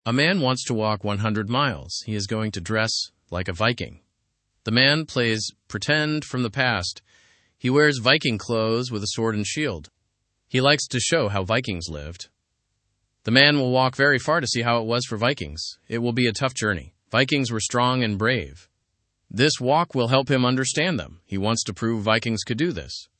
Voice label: learn english through news beginner fast; viking re enactor to walk 100 miles